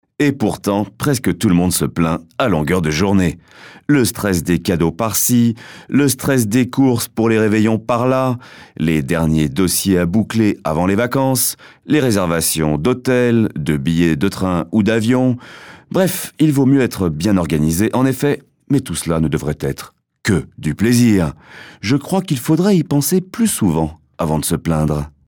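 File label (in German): French Kommentar Freundlich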